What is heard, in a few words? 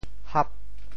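"hap8", Teochew; 潮州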